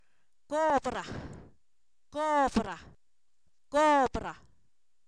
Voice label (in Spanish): dinero, Zapoteco, san miguel albarradas